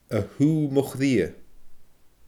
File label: "a shuugh mo chridhe", Scottish Gaelic; my sweetest, darling, sweetheart!